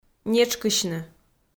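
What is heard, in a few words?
"нетшкысьны", Komi-Zyrian, female; kpv audio dict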